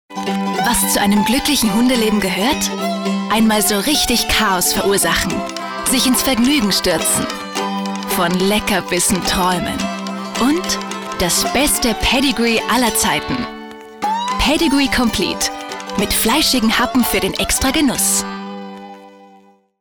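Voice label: Austrian, Fremdtake Freundlich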